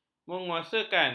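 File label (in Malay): "menguasakan", Malay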